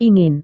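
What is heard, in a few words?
"ingin", Indonesian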